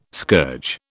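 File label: "scourge", British English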